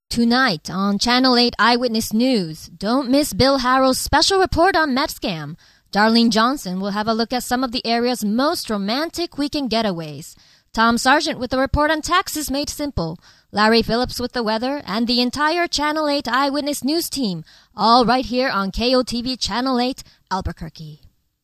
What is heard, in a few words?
news